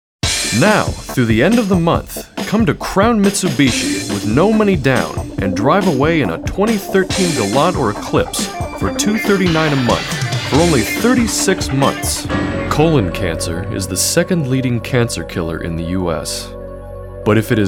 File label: commercial